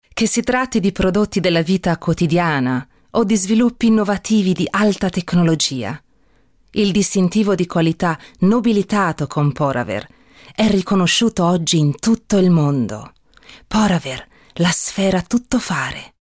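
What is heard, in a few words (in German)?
Italian Werbung Sanft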